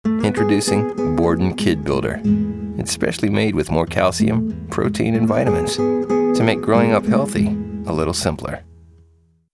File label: soft spoken